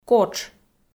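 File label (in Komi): "котш", Komi-Zyrian, female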